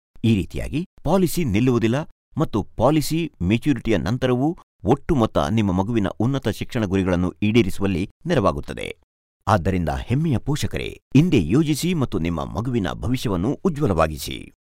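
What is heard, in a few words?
Kannada male Sample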